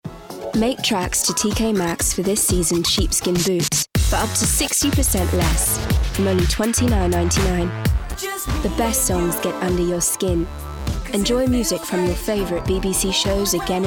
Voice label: Commercials Montage UK